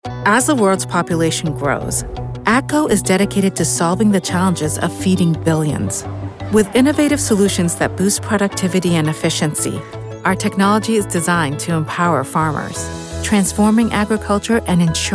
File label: spot Informative; Agco